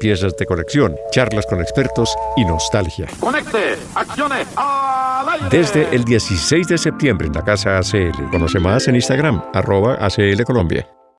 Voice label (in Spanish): Promo Radio; Reliquias; la sal en la herida